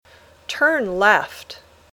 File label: "Turn left", English